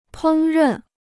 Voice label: "烹饪", Chinese

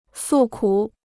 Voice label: "诉苦", Chinese

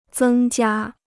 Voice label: "增加", Chinese

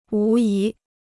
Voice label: "无疑", Chinese

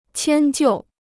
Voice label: "迁就", Chinese

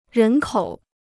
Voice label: "人口", Chinese

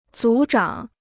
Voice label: "组长", Chinese

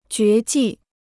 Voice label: "绝技", Chinese

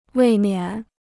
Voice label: "未免", Chinese

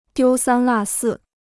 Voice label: "丢三落四", Chinese

